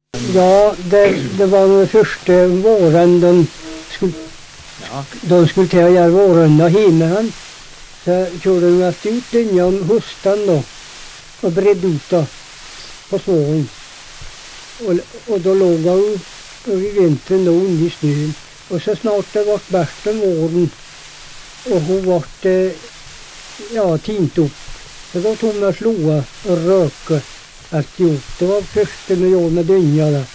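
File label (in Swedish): Hede Härjedalen, svenska sagor dialekter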